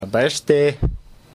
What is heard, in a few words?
mongol goodbye